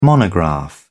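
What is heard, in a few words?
"monograph", English, British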